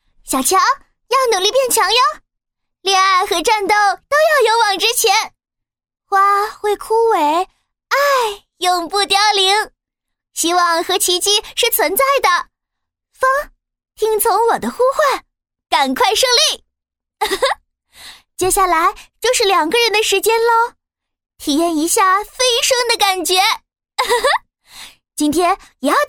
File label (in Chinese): 女 角色 元气少女